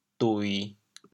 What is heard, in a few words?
"dui1", chaoyang